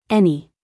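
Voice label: "any", American English, female; everyone-can-use-english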